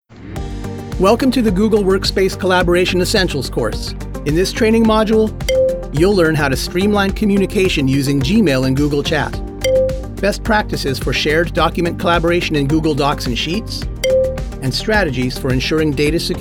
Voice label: eLearning formal; male voice actor